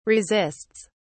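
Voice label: "resists", British English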